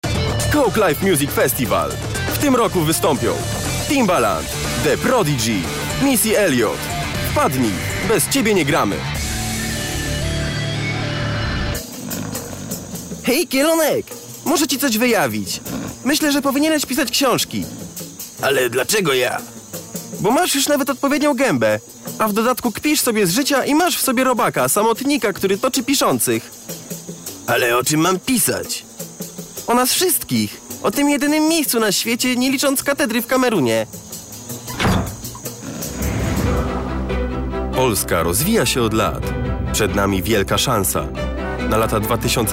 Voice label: Male VO Commercial